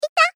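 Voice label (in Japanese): Moe Damage Ita, moe loli girl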